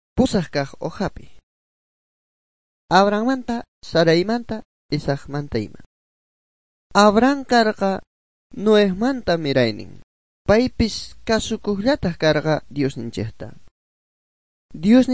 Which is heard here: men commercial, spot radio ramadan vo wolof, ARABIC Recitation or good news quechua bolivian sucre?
good news quechua bolivian sucre